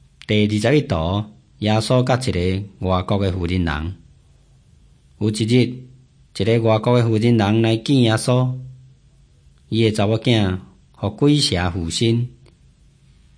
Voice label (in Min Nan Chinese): taiwanese, drama; hokkien; taiwanese min nan todays taiwanese version nt drama; jesus teacher and healer